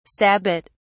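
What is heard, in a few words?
"sabbat", British English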